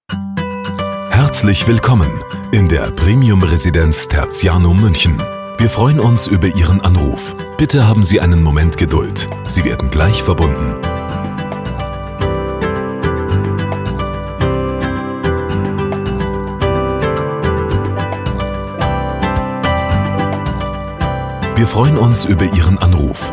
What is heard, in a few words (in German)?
Warteschleife